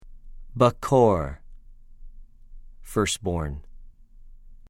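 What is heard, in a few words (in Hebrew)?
"בְּכֹר", Hebrew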